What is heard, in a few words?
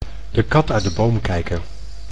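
"de kat uit de boom kijken", Dutch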